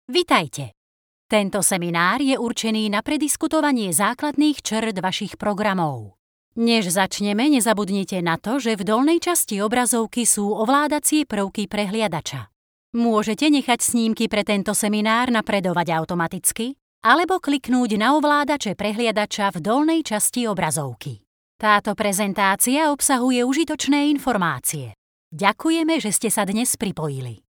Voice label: cs elearning

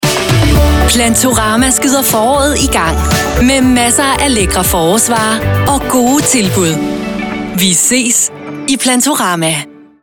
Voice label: dk commercial

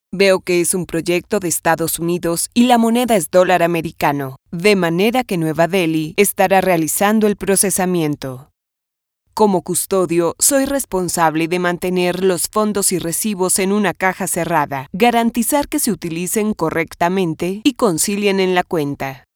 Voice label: spanish latin colombian informative

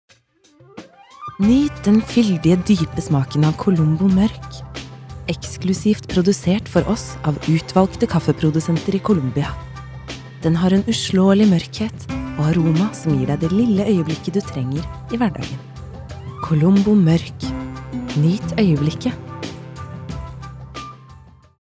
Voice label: no soft sell

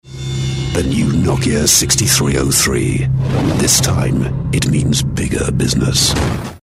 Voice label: uk com phone

